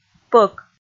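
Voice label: "пӧк", Komi, female; kpv audio dict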